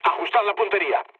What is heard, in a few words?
player friendly fire, mood low, speech